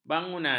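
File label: "bangunan", Malay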